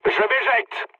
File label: bailout mood low, speech fr; War Thunder